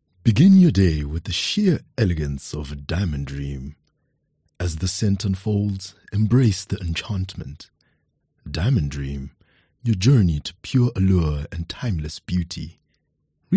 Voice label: SOFT SELL